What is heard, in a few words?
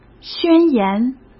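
"宣言", Mandarin Chinese; hsk; chinese-flashcards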